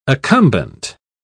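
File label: "accumbent", British English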